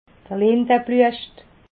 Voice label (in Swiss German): "lindebluescht", alsace